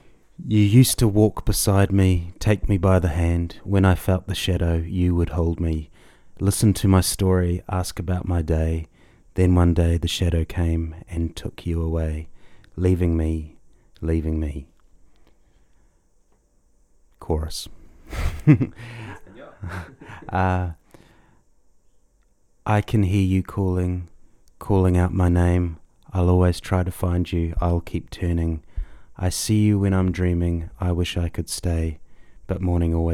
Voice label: Recite